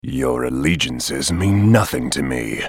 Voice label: move, en US; Talon